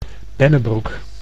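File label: "Bennebroek", Dutch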